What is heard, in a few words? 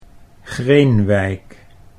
"Greenwijk", Dutch